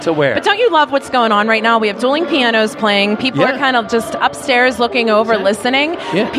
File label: PLOSIVES CORRECTION Podcast; YaJagoff